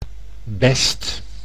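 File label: "best", Dutch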